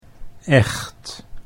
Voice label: "echt", Dutch